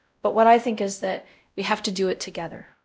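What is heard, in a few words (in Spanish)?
Voice Acting, es, female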